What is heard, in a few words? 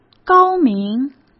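"高明", Mandarin Chinese; chinese-flashcards; hsk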